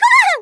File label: RUN AWAY, Portuguese; Worms Armageddon